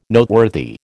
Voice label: "Noteworthy", American